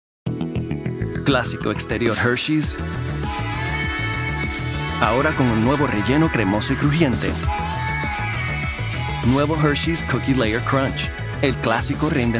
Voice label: Spanish